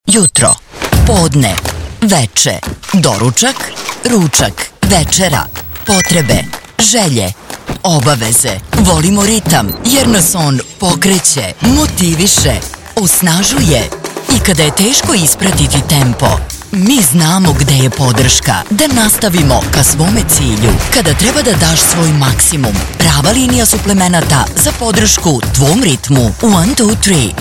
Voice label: TVC, serbian